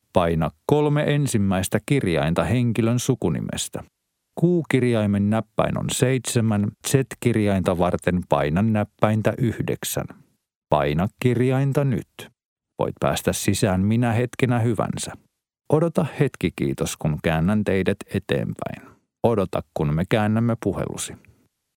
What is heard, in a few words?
male finnish voice over upbeat